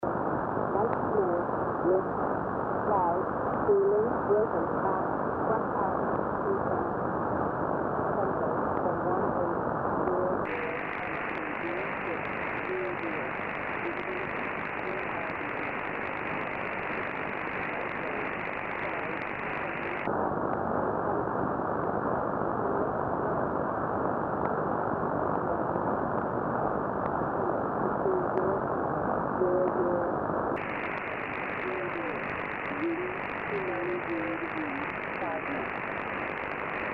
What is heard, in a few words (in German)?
Shannon Volmet auf 5505khz USB; Sony Eton